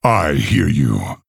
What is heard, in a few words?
Male Orc Greetings